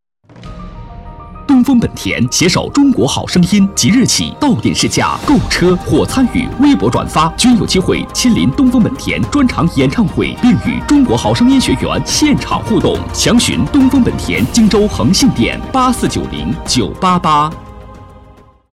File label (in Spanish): Locutor varón chino